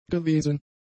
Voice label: "gewesen", German; adjectives

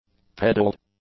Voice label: "pedaled", English; adjectives

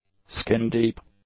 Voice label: "skin-deep", English; adjectives

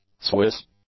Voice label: "Swiss", english; adjectives

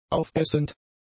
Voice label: "aufessend", German; adjectives

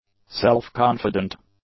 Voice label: "self-confident", English; adjectives

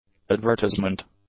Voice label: "advertisement", English; nouns

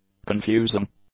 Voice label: "confusing", English; adjectives

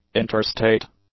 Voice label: "interstate", english; adjectives

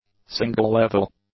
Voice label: "single-level", English; adjectives

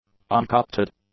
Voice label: "uncorrupted", English; adjectives

